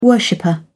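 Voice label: "worshipper", British English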